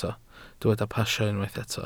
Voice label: "pasio", Welsh